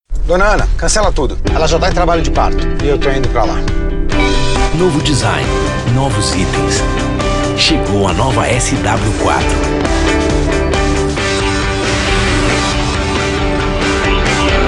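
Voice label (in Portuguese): Épico Grave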